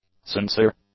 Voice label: "sincere", English; adjectives